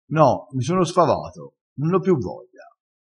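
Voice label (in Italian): "sfavato", dialettale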